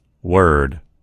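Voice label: "word", American English; gcompris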